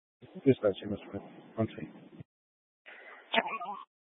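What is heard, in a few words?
Fire Dispatch, scanner audio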